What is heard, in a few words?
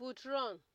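"footreon", Nigerian Pidgin, female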